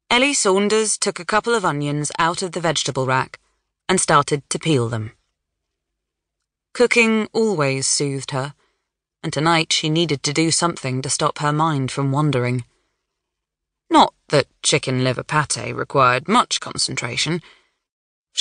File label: Audiobook Sample; The Back Road